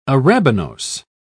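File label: "arabinose", British English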